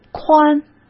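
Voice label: "宽", Mandarin Chinese; hsk; chinese-flashcards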